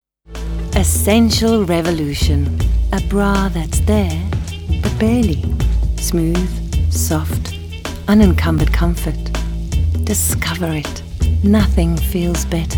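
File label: english south african female commercial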